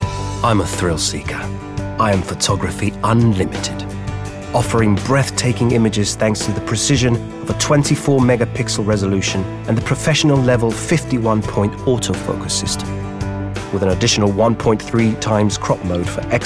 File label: Commercials; Nikon